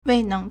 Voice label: "wei4neng2", Chinese

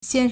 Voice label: "xian1", Chinese

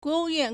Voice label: "guo2wu4yuan4", Chinese

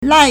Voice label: "lai4", Chinese

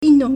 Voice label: "yun4dong4", Chinese

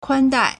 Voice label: "kuan1dai4", Chinese

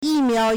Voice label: "yi4 miao2", Chinese

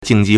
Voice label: "jing4ji4", Chinese

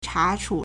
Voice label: "cha1chu3", Chinese

